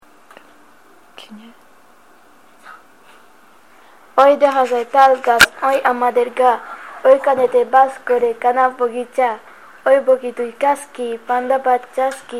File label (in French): POEME BENGALI; printemps des poetes